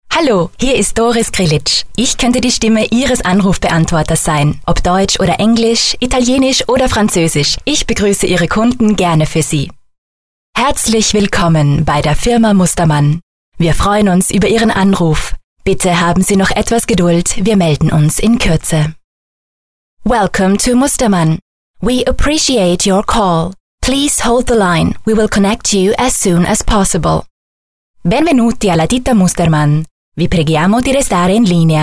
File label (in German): Sprecher Telefonansagen